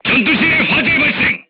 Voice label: voice message fire in tank, crew tanks korean